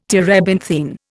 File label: "terebinthine", Indian